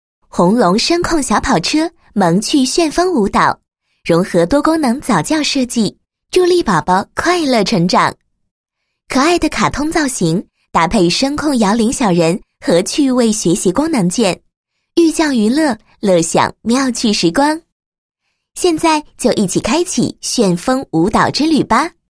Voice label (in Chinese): 女 产品介绍 声控小跑车介绍; 红龙声控小跑车介绍